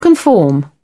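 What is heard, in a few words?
"conform", British English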